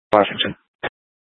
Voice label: Scottsdale Police, Simulcast